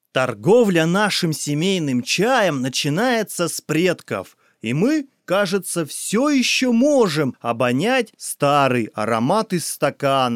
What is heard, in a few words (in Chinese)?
外籍俄语男; 一带一路